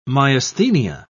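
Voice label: "myasthenia", British English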